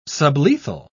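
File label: "sublethal", British English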